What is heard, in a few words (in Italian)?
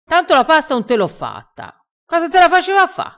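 "fa a fa", vocabolario dialettale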